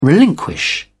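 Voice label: "relinquish", British English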